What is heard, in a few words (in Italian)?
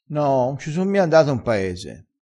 "so", vocabolario dialettale crespinese